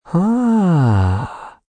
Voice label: Haaah I See, male; Reaction; Exclamation

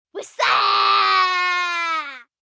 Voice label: Wassup, Greeting, 1 boy, 8 yrs.; Talking; VoiceBox

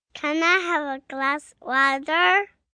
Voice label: Glass Of Water, Questions, young child; Talking Sound Effects; Sound Effects:Voice; VoiceBox

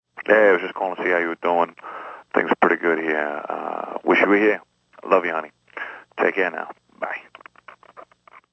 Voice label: Phone boyfriend, Male, Telephone Voice; VoiceBox

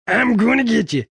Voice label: Im Gonna Getcha, Male; Talking Sound Effects; Sound Effects:Voice; VoiceBox

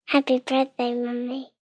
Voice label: Happy Bday Mommy, 1 girl, 3 yrs; Occasions; Holiday Sound Effects

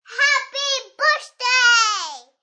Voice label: Kid Says Happy Birthday; Kid talking; Holidays; Voice; Holiday Sound Effects

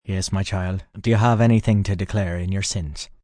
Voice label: Delare Sins, Church, prayer, male, priest

